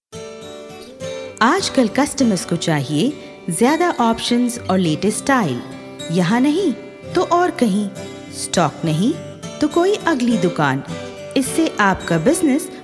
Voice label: hindi; rblbank